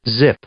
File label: "zip", verb